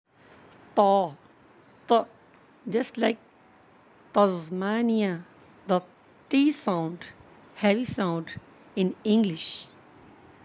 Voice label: "taa" heavy, Arabic; ط